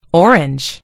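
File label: "orange color", American English; gcompris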